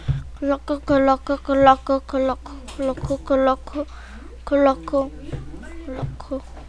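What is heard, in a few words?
"clock", spoken word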